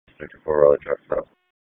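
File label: Raleigh Police Northeast District Dispatch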